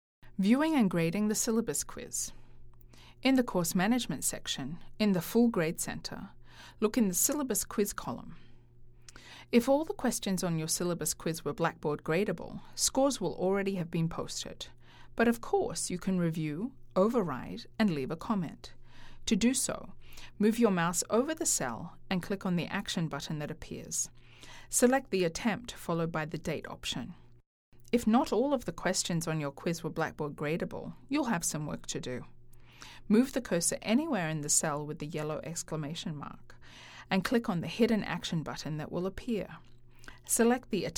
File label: no echo